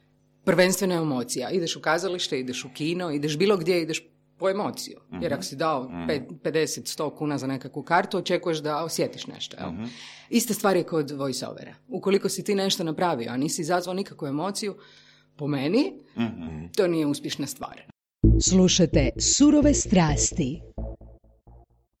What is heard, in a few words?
voiceover